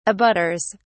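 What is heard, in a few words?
"abutters", English, British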